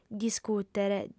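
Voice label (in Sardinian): "discùtere", female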